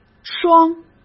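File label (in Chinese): "双", Mandarin Chinese; chinese-flashcards; hsk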